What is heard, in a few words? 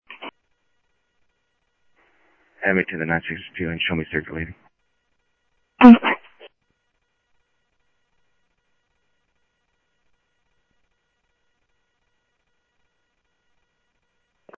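Sheriff East County, scanner audio trunk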